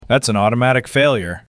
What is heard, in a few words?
Male Failure